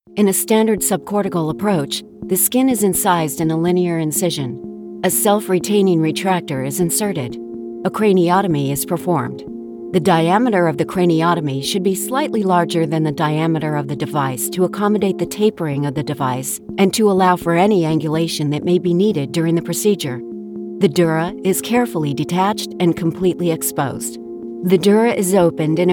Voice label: Explainer Medical Technical Professional Serious Articula